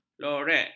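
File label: "lorek", Malay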